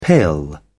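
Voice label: "pill", British English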